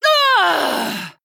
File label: Female Draenei Death